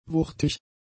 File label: "wuchtig", German; adjectives